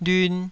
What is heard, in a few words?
"lyun2", Taiwanese syllable